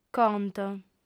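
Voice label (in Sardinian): "conto", female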